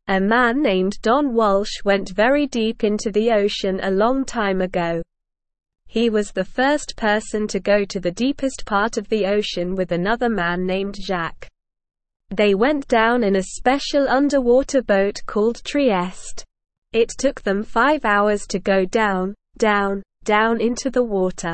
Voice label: English Beginner SLOW Reading; Deep Dive Don Walsh Explores the Oceans Depths

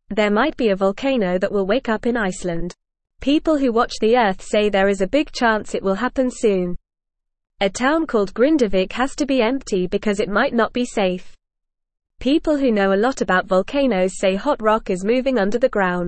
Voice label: English Beginner FAST Reading; Volcano Might Wake Up in Iceland Soon

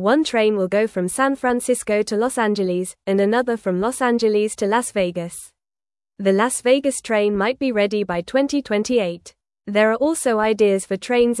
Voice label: English Newsroom Beginner FAST Reading; America Needs Faster Trains for Better Travel

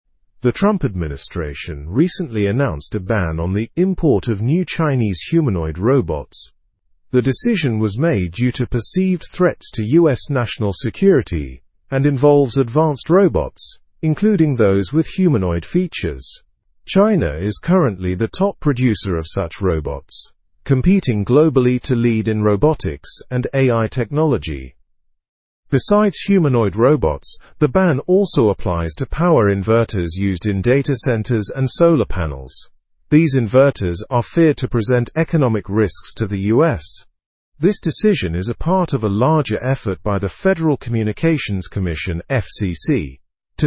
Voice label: learn english through news upper intermediate; us ban on chinese humanoid robots explained

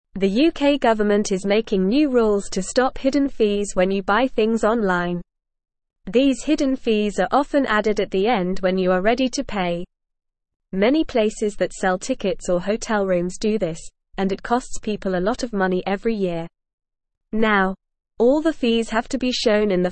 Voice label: English Lower Intermediate NORMAL Reading; New Rules to Stop Tricky Online Shopping; English Newsroom

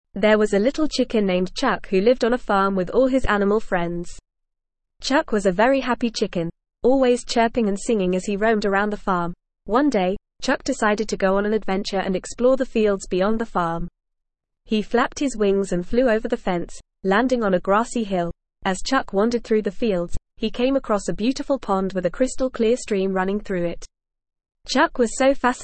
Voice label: ESL Short Stories for Kids NORMAL reading; Chuck The Chirping Chicken

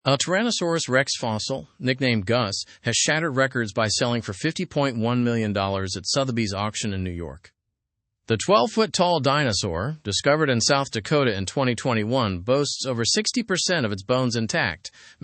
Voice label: english news upper intermediate; tyrannosaurus rex fossil fetches record price

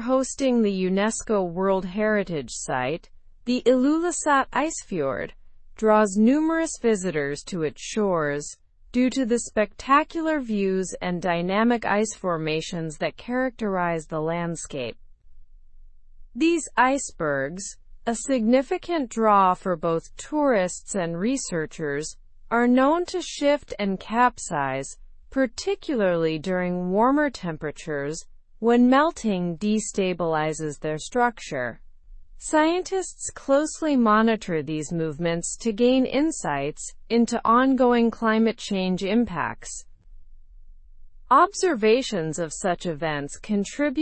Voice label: learn english through news advanced slow; massive iceberg capsizes near greenland s coast

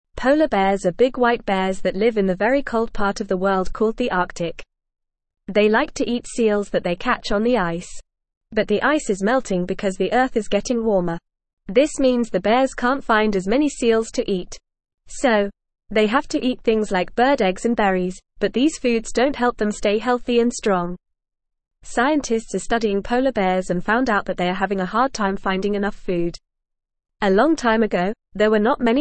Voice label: English Beginner FAST Reading; Polar Bears Struggle to Find Enough Food

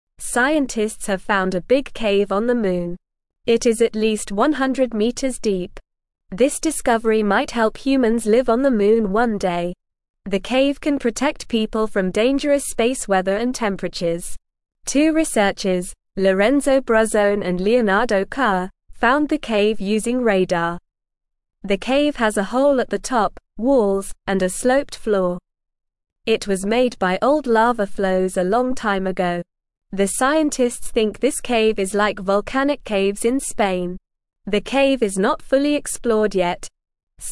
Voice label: English Lower Intermediate NORMAL Reading; Big Cave Found on the Moon for Future Living; English Newsroom

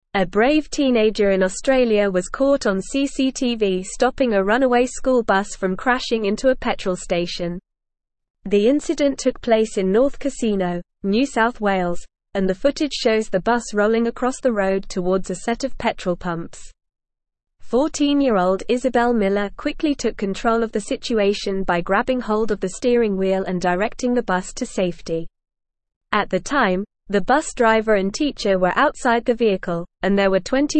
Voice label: English Advanced NORMAL Reading; English Newsroom; 14 Year Old Australian Student Saves School Bus from Crash

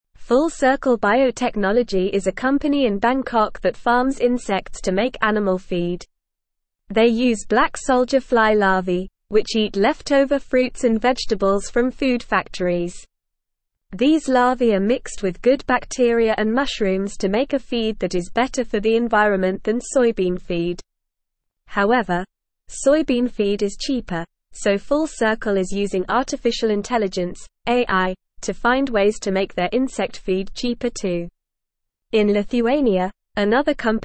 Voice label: English NORMAL Reading; Bug Farming Growing Food for Animals with Bugs

